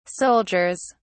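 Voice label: "soldiers", English, British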